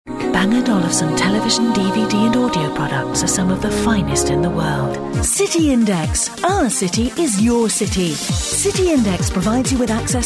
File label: commercials